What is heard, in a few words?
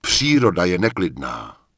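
Night Elf Male error out of range, Czech; wowpreklad; czwow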